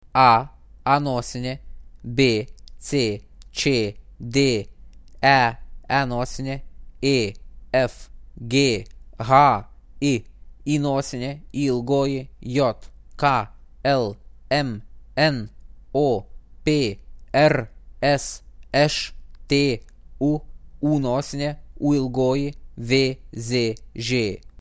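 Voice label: alphabet Lithuanian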